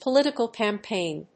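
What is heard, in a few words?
"Political campaign", English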